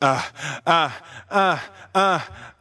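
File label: "uh uh uh uh", male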